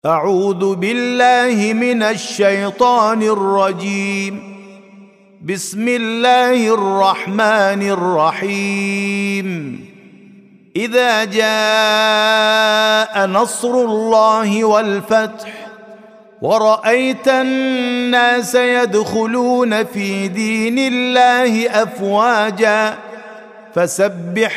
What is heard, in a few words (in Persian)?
ترتیل سوره مبارکه نصر